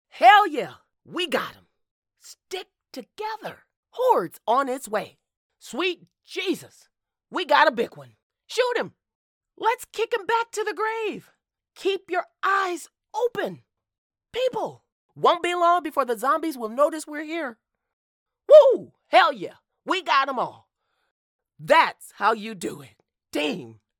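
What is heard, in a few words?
Older Black Female Civilian Survivor, Female Afro American Survivor In A Zombie Apocalypse Themed Game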